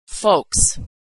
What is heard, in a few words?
"folks", pronunciation